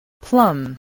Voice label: "plum", English

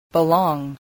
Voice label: "belong", English

